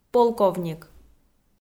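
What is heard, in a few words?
"полковник", Komi, female; kpv audio dict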